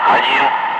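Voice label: "5", spoken; Ka-50; Wingman; Digits; DCS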